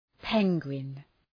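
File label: "penguin", English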